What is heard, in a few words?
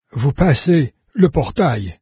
portal, fr narrator; Fate Undiscovered Realms